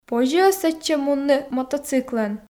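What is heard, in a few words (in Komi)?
позьӧ-ӧ сэтчӧ мунны мотоциклӧн, Komi, female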